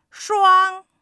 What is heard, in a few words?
"双", Chinese